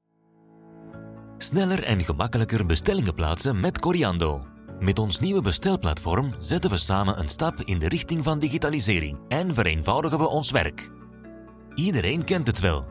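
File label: Dutch, Explainer, Flemish; GoLocalise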